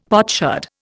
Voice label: "potsherd", Indian; Dictionary